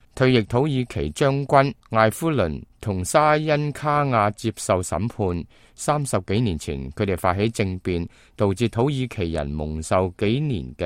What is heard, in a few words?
cantonese, news